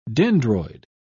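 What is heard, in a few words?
"dendroid", British English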